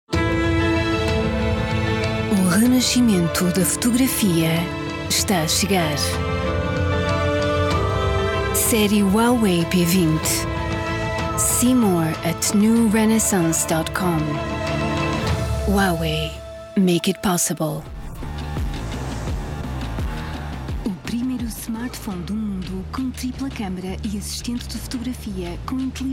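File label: European Portuguese commercial; GoLocalise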